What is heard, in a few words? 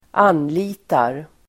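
"anlitar", Swedish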